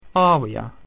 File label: "grandmother", Latin